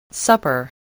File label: "supper", English